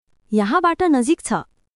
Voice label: Nepali, basic phrases